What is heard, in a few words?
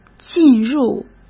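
"进入", Mandarin Chinese; chinese-flashcards; hsk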